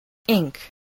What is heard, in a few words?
"ink", voice spelling